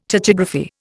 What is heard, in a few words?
"tachygraphy", Indian